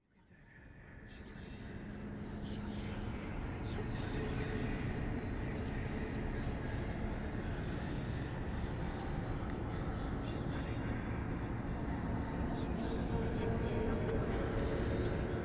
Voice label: whispers; meat madness redux; godot